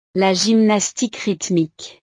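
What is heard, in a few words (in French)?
"la gymnastique rythmique", French; deportes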